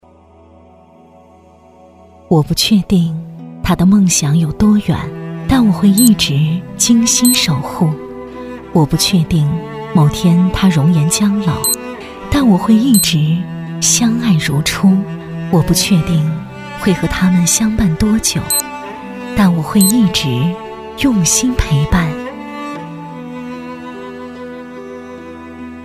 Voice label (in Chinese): 女 专题 女 背景 干声; 定海佛配音稿